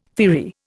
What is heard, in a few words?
"firry", Indian